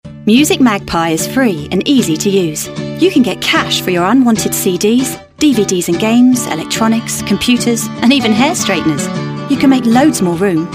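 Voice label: commercial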